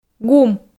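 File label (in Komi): "гум", Komi, female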